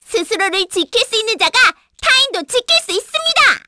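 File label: Vox Skill, Korean; Hanus; kingsraid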